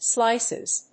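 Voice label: "slices", English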